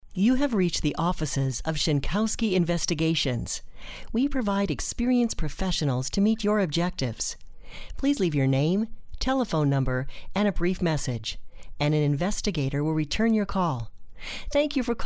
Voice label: Phone-Hold IVR Female

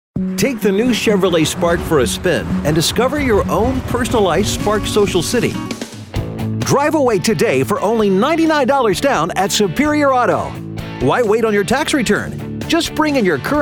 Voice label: Automotive Male, commercial voiceover; Auto Dealer

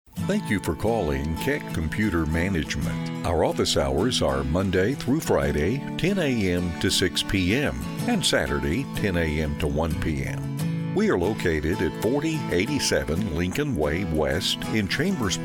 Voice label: Phone Hold IVR Male; commercial voiceover

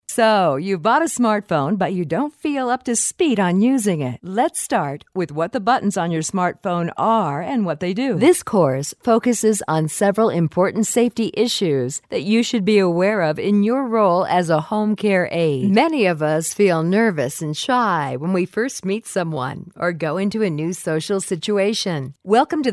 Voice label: eLearning Female

